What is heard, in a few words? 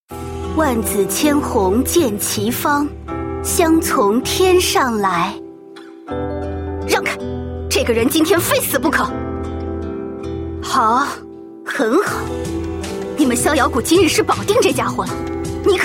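female queen mature woman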